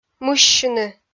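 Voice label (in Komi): "мыссьыны", Komi, female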